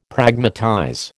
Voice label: "Pragmatize", American

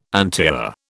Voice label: "antiar", British

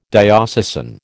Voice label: "diocesan", American

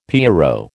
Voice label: "pierrot", American

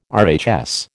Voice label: "RHS", American

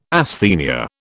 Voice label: "asthenia", British

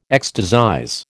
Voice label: "ecstasize", American

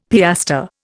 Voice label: "piastre", Indian; Dictionary

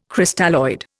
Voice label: "Crystalloid", Indian

